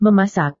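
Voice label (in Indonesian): "memasak", Indonesian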